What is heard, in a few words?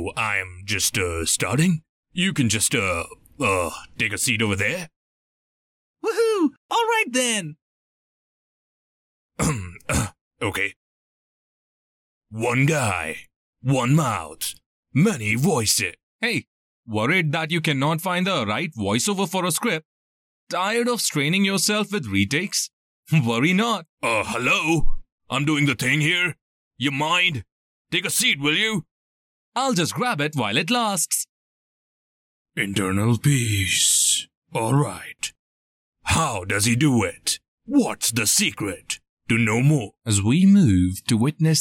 Trailer English